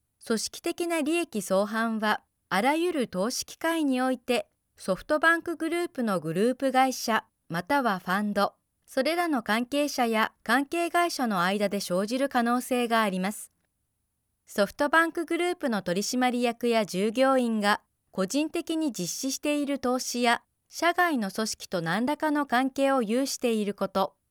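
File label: Japanese, female, corporate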